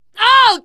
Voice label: horde attack, teen girl, survivor voice; source engine; left 4 dead 2

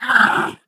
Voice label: impatient

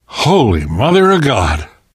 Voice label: violence awe, survivor voice; source engine; left 4 dead 2; namvet

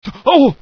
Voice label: fear; Source Engine; bshift; rosenberg

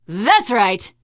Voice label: taunt, teengirl; survivor voice

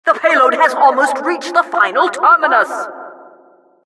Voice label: announcer cart defender final warning

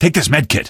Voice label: health, male; Source Engine; video game; npc

